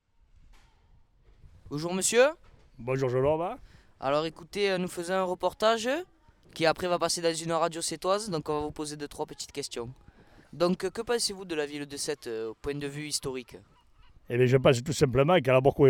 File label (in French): accent setois